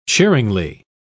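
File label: "cheeringly", British English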